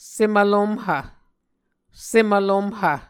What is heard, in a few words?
"sem vlomhv", Muscogee